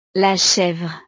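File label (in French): "la chevre", French; la cabra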